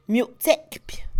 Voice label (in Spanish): Mixe, Mujer; empuñar; Posesión; INALI